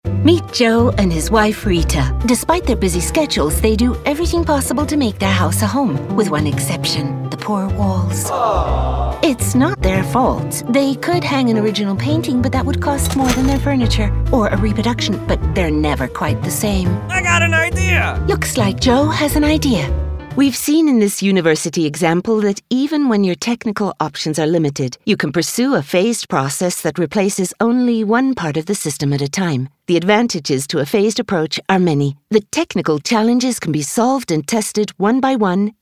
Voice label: english neutral narration